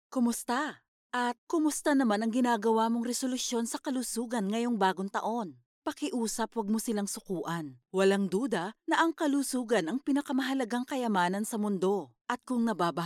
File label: tagalog commercial; Filipinas; actriz de doblaje profesional en tagalo